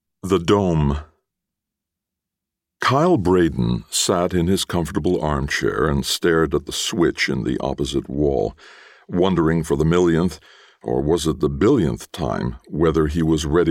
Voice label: Audiobook sample; Honeymoon in Hell